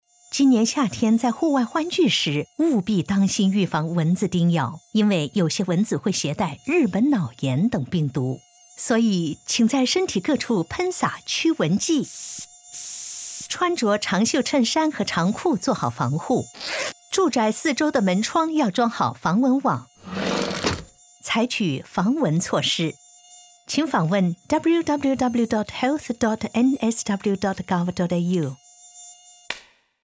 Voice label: mandarin; nsw health; mosquito-borne